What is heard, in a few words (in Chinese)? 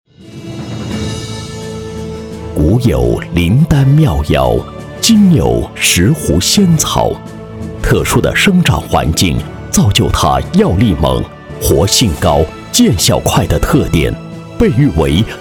男 药品恢弘大气